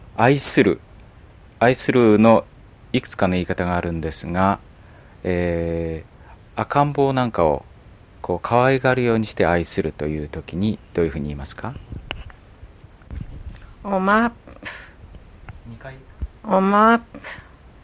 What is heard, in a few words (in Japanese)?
あいする, Ainu; mukawa